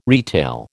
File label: "retail", American